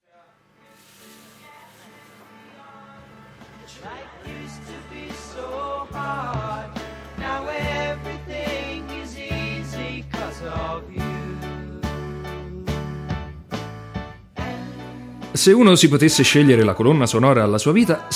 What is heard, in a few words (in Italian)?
Lettura su base musicale